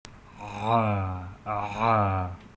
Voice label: Voiced epiglottal trill